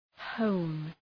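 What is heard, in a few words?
"hone", English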